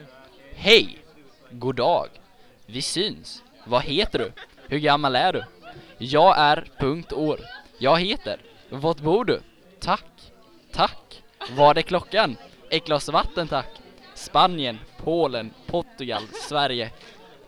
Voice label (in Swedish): svenska; COMENIUS